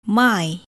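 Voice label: "my", English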